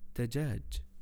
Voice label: chicken, arabic words audio